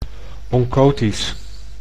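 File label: "oncotisch", Dutch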